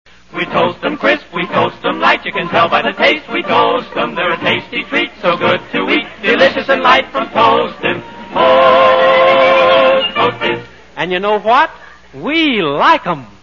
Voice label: Vintage Commercials; Post Toasties